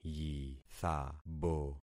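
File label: "yithabo", concatenated syllables; Affixing experiment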